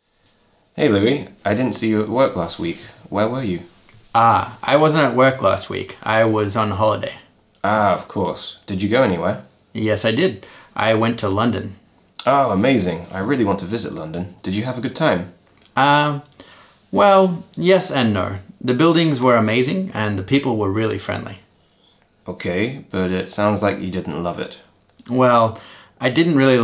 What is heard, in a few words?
Conversation Past simple